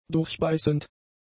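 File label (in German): "durchbeißend", German; adjectives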